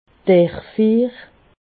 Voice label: "derrfiir", alsace